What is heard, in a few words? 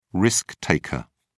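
"risk taker", British English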